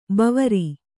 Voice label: "ಭವಾರಿ", Kannada

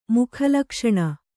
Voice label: "ಮುಖಲಕ್ಷಣ", Kannada

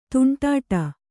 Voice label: "ತುಂಡಾಡಿ", Kannada

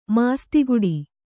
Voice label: "ಮಾಸ್ತಿಗುಡಿ", Kannada

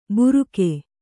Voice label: "ಬುರುಕೆ", Kannada

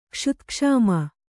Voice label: "ಕ್ಷುತ್ಕ್ಷಾಮ", Kannada

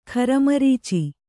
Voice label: "ಖರಮರೀಚಿ", Kannada

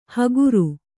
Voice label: "ಹಗುರು", Kannada

